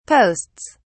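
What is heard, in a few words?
"posts", British English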